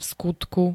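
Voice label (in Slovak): "vskutku", Slovenské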